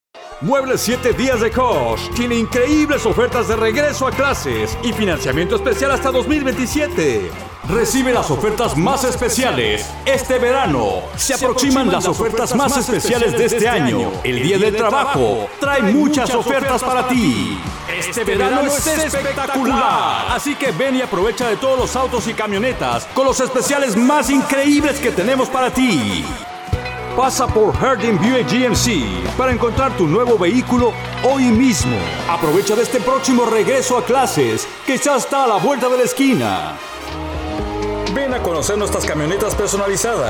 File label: Spanish